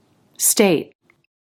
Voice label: "state", English, Stop T